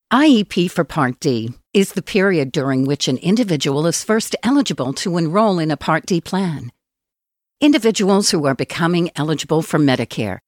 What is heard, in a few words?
English USA